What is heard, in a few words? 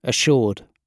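"assured", British English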